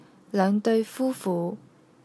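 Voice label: "deui3 fu1fu5", Cantonese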